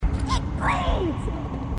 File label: it bleeds, grunts